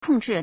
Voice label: "kong4zhi4", Chinese